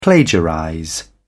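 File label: "plagiarize", British English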